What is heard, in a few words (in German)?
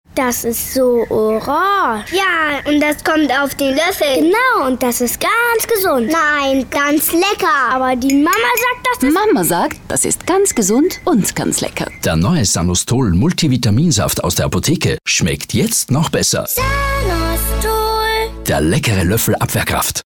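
Austrian, Fremdtake Neutral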